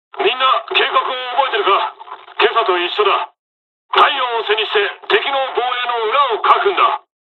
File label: dialog, dialogs japanese; us midway; War Thunder